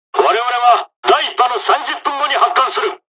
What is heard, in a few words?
dialogs japanese; hon jpn second wave; War Thunder